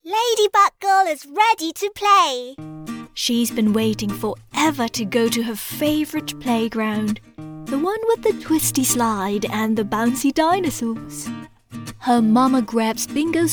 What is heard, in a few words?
Picture Books Kids Voices Animal Voices